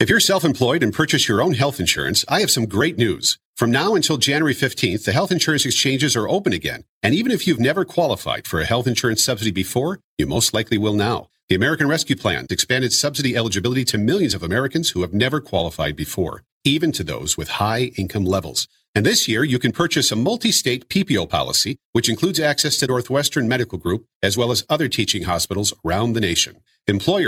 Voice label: radio ad; WLS